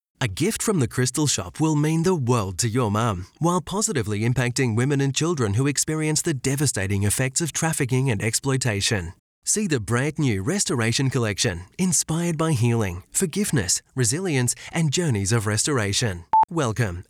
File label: Relaxed